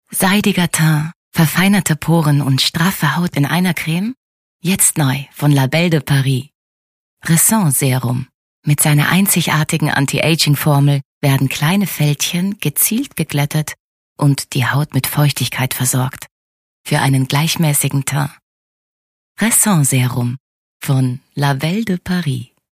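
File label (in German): Werbung Sanft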